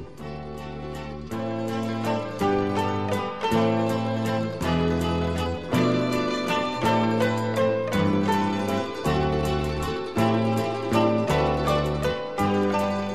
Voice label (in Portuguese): Feliz Natal e Ano Novo Romantico, M